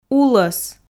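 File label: "улӧс", Komi, female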